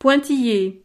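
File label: "pointilles", French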